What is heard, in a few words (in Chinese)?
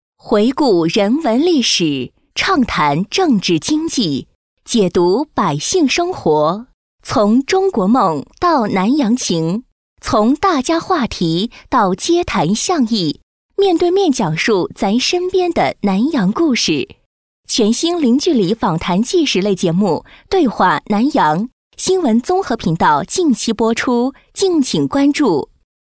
【广告】大气台宣